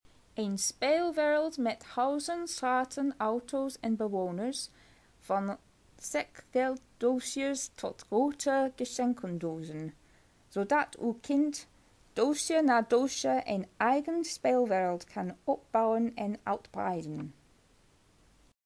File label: reading dutch lego instructions